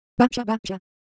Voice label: Japanese Sound, Ideo Phones